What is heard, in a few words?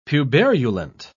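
"puberulent", British English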